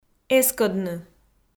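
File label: "эскӧдны", Komi, female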